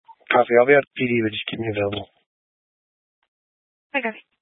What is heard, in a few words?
Police Dispatch, scanner; Police 1 Dispatch